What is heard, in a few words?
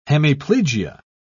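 "hemiplegia", British English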